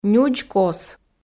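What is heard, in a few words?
"нюдз кос", Komi, female; audio dict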